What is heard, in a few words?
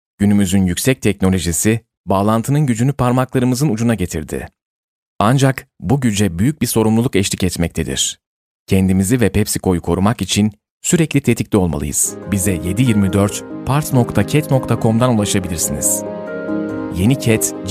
turkish voice corporate